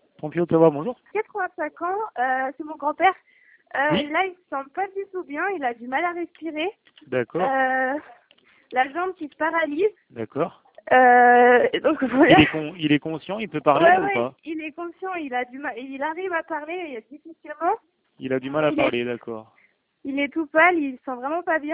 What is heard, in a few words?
french